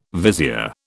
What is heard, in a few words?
"vizier", British